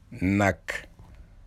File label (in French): Wolof Vache